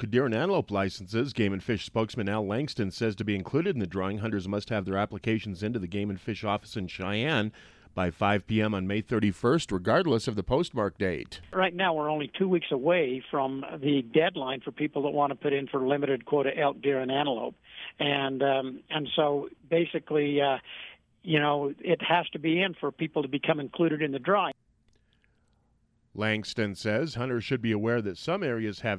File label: wyoming radio news